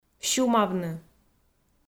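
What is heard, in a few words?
"сюмавны", Komi, female; kpv audio dict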